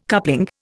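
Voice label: "coupling", Indian English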